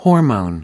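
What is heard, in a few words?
"hormone", American English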